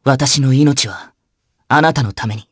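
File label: voice Victory, voices heroes, Japanese; Theo; kingsraid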